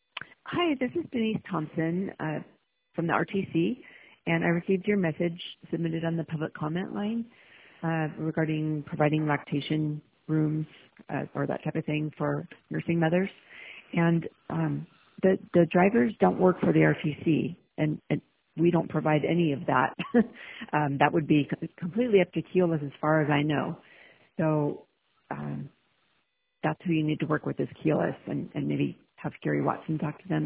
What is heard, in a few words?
voicemail